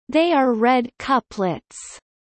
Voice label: Kelly low; spring festival